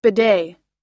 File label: "bidet", us